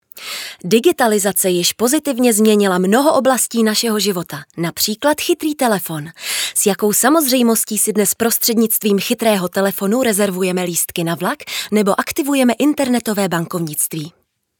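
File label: CZ F narration, Czech, female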